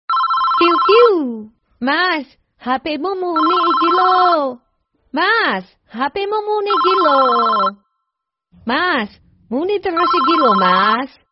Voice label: nada dering; mas hp mu muni iki loh

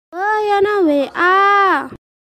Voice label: nada notifikasi lucu bahasa jawa oo yono wa

